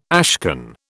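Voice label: "ashcan", British English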